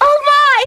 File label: "oh my" female; expletives